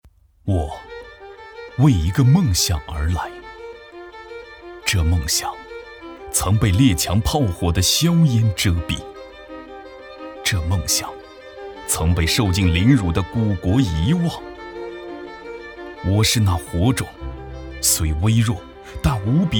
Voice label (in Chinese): 男 旁白; 梦想初心